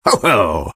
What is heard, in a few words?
taunt cong fun; engy; tf; taunts